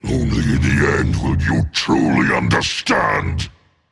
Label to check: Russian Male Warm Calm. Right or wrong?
Wrong